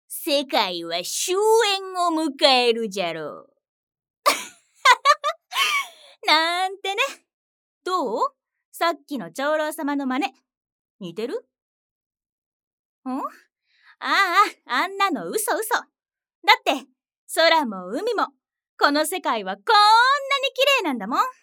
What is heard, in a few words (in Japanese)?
陽気な女性